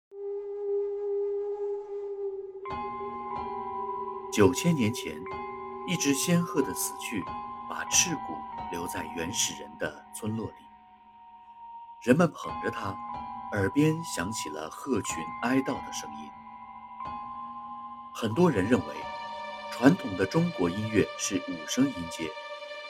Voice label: 纪录片 配音

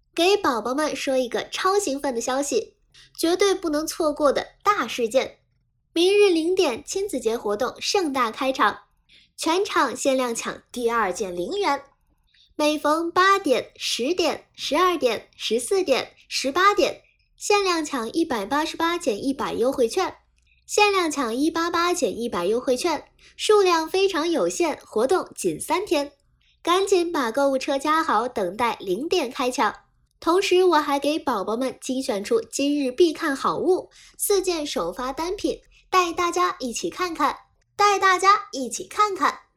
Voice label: 直播音

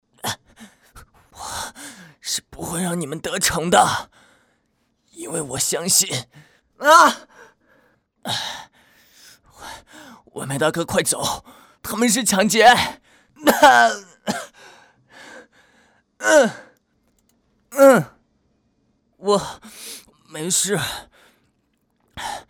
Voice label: 预告片 十六七岁少年音; 奥特曼

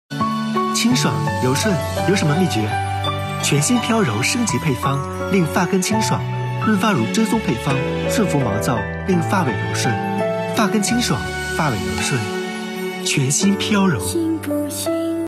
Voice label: 广告片配音; 良宫藏广告片配音

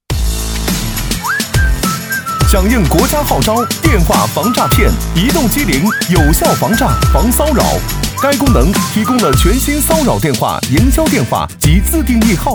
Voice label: 促销激情; 中国移动

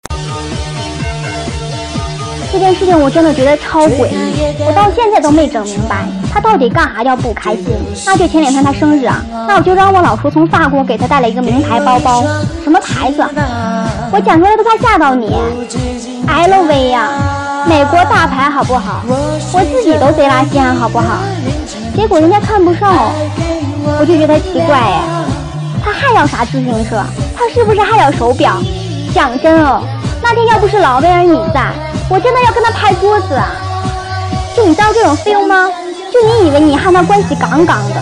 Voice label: 台湾 东北腔

